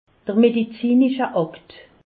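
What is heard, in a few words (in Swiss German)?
"medezinischakte", Alsatian; medecine; dossier médical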